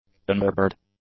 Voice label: "unharbored", english; adjectives